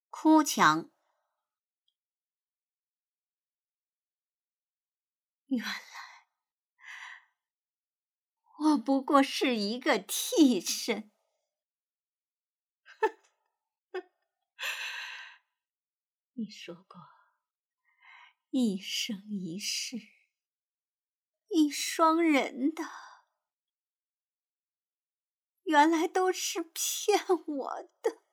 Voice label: 哭腔

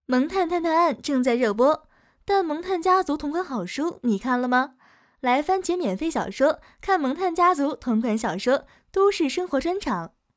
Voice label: 旁白萌; 萌探半夏

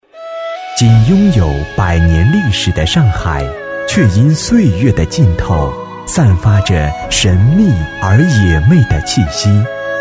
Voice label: 宣传片; 上海

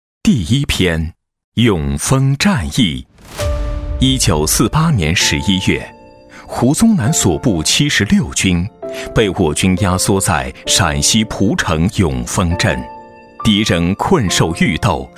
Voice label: 博物馆讲解; 永丰战役

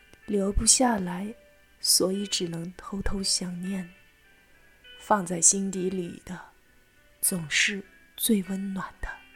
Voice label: 悄悄话配音

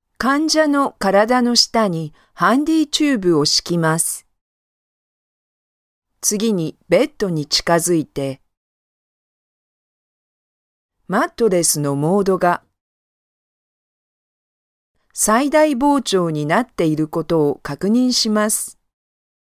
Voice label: 日语 女 广告宣传解说

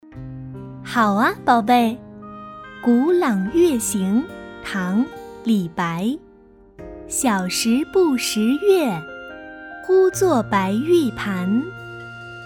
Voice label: 诗词课件; 古朗月行

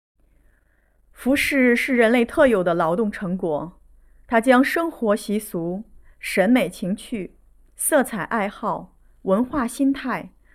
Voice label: 服饰展示解说词配音

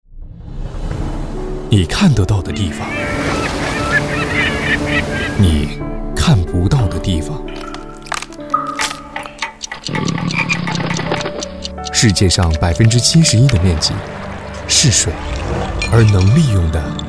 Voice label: 公益广告节水爱水夏日版

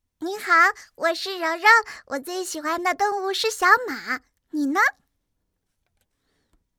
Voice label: 女童; 声展女童2柔柔

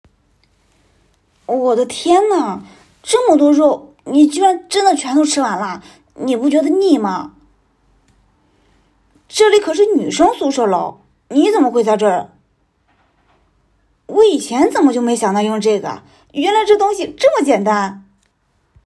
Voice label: 惊讶

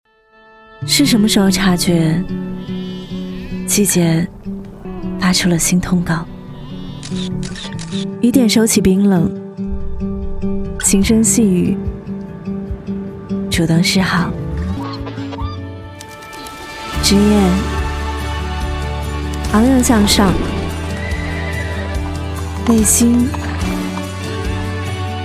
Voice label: 广告自然走心年轻温暖春

